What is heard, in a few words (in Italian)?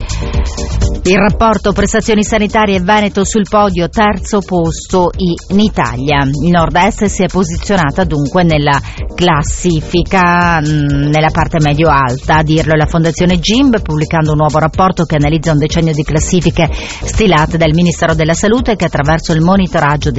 RADIO; RADIO VERONA; TEMPO REALE; Prestazioni sanitarie